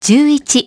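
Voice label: "ten one", English; iOS 4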